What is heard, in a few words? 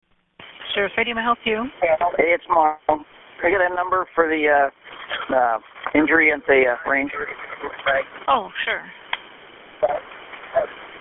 911 call